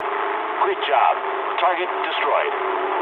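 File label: English bomb success mood low; War Thunder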